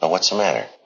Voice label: what's the matter, male